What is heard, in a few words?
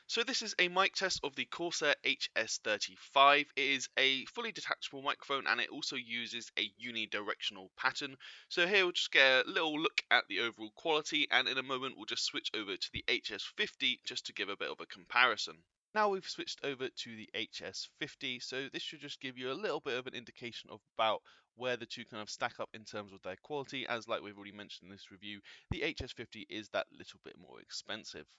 mic test